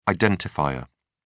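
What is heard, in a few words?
"identifier", British English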